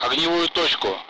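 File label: Speech, Russian; Allied Flight; bunker; DCS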